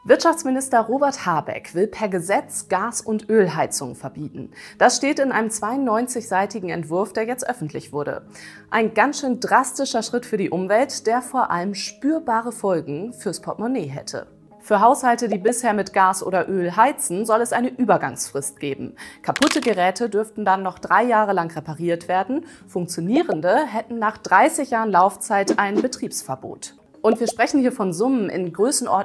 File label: Presenter; LOFT VOICES